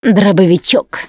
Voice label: take shotgun, russian, Survivor Voice Producer